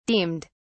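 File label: "deemed", British English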